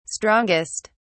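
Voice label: "strongest", British English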